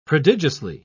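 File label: "prodigiously", English, British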